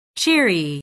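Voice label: "cheery", English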